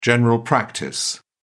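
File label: "general practice", British English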